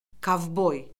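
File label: "kovboy", Azerbaijani; Orfoqrafiya Lüğəti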